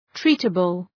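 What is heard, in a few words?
"treatable", English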